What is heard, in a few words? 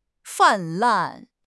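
"泛滥", Chinese; HSK6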